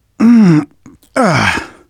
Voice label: getting revived, manager, survivor, voice; Left 4 Dead 2; left4dead2 dlc2

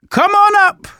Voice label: revive friend, survivor voice manager

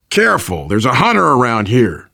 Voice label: heard hunter, voice, biker; Left 4 Dead 2; survivor

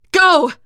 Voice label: emphatic go, teen girl; player; survivor; voice

